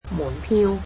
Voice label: "ticket", Cantonese

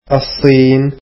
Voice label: arabic China

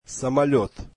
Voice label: russian airplane

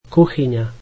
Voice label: slovak kitchen

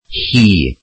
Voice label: welsh hi

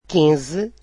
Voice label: "fifteen", Portuguese

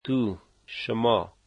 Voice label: "you", Dari

